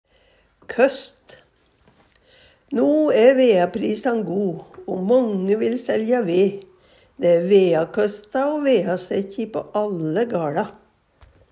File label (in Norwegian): "køst", Numedalsmål